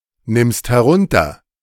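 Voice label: "nimmst herunter", German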